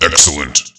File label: "excellent", man